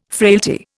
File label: "frailty", Indian